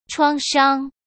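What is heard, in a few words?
"创伤", Mandarin Chinese; chinese-flashcards; hsk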